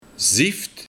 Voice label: "Zieft", de Buiting; Vliegengaas

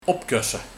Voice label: "Opkosse", Opkuisen

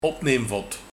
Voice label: "Opneemvod", Dweil

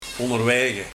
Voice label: "Onnerwege", Onderweg

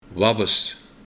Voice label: "Wabbes", Nietsnut

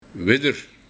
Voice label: "Widder", Weet je

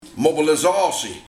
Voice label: "Mobillizaotie", Oproeping in oorlogstijd

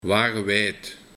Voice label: "Wagewijd", Wijdopen

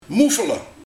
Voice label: "Moeffele", Gulzig en overdadig eten; 2. Mond vol proppen

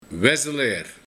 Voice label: "Wezzeleir", Onrustig iemand

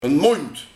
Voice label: "Moend", Maand

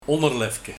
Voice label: "Onnerlefke", Onderhemd

